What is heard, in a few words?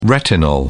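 "retinol", British English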